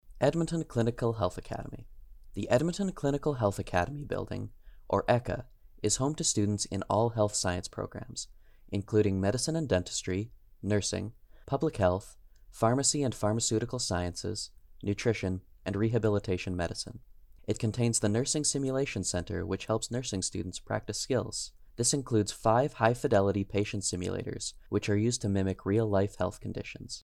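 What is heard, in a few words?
English; Edmonton Clinical Health Academy; NSO Tour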